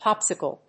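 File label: "Popsicle", English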